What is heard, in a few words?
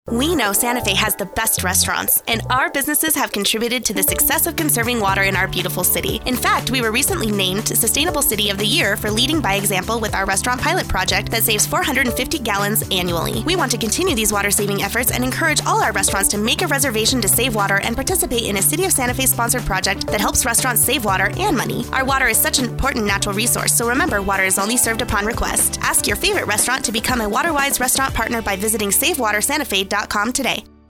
radio spot; RESTAURANTS